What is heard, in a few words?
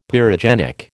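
"pyrogenic", American English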